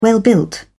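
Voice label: "well built", British English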